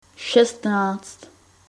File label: "sixteen", czech